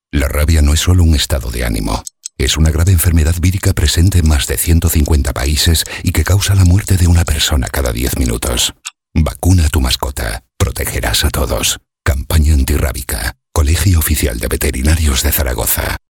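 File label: comercial, Spanish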